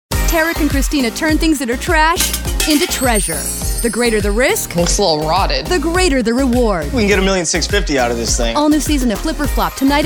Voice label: PROMO

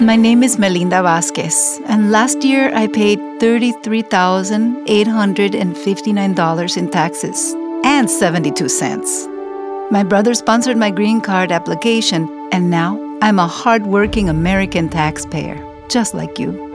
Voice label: Immigration Testimonial Hispanic Accented English; English - Political

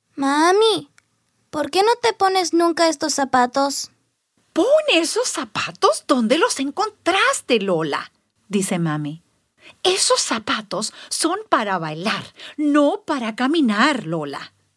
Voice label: Spanish; Lola's Fandango Mamá

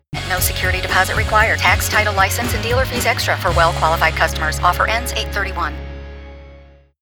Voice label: Legal Disclaimer

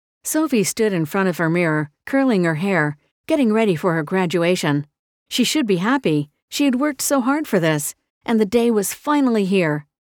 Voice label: Audiobook

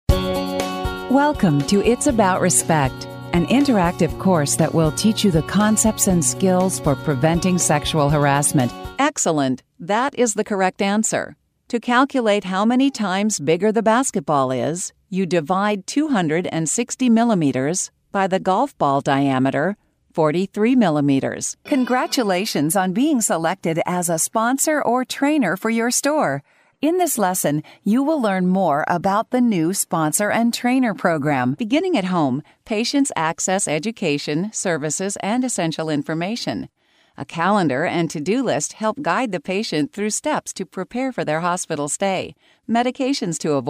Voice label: e-Learning

